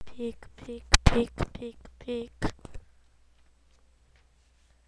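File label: "pick", spoken word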